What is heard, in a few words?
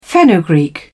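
"fenugreek", English, British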